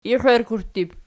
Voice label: "ixittib", Archi

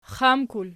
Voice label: xamkul nom, archi

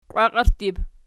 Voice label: "qiwakierttib", Archi

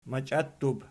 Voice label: "machiattub", Archi

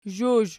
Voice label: "zhuzh", Archi

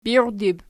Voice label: "birdib", Archi

